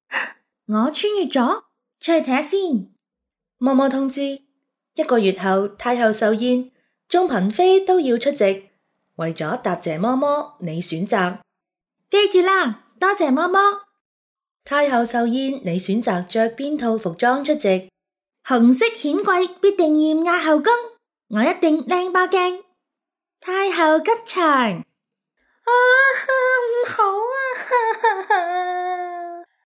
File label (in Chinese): 动画广告配音宫廷穿越